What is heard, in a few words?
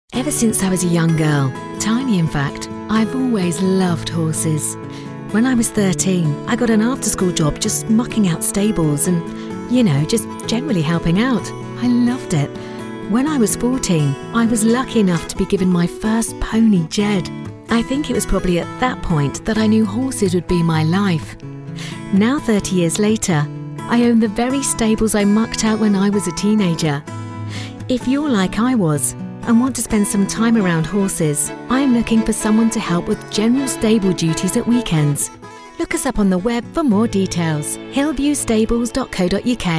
english british commercial; experienced British female voiceover artist